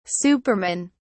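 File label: "supermen", English, British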